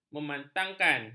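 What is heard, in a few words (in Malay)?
"memantangkan", Malay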